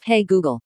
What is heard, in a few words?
"hey g", us female; Android 10.0.0 r40; openhst